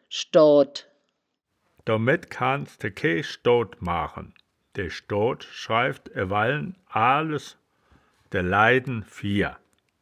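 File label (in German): "schdoat", wittlicher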